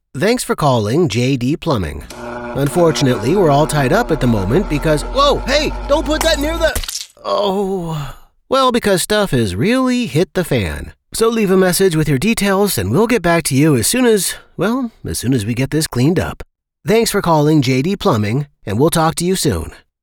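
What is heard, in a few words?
Telephone Humorous, voiceover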